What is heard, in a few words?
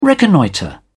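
"reconnoitre", British English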